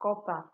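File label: "corper", Nigerian Pidgin, female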